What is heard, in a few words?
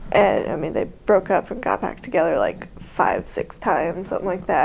creaky